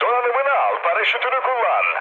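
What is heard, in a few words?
Turkish bailout, mood low, speech; War Thunder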